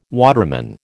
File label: "waterman", American